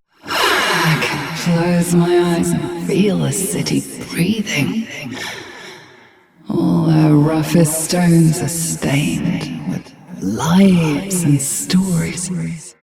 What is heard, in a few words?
Ambient Barks, en